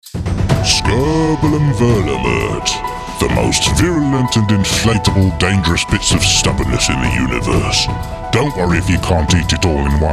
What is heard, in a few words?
The Advert